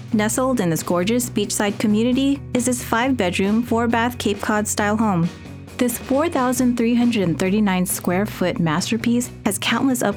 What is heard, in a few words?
english american real estate; Filipina, Asian-American professional voice